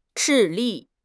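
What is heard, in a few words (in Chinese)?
"致力", Chinese; HSK6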